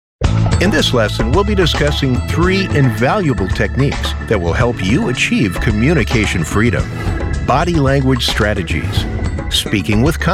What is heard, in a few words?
Narrations